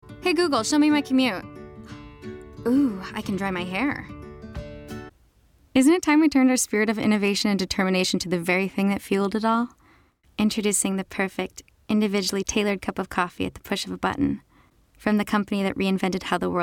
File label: VO commercial